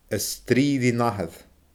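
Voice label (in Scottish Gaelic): "a strii ri nathair", Scottish Gaelic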